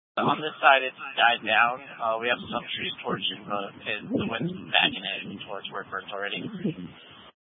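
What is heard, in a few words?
Incident Tac 2, Scanner audio recording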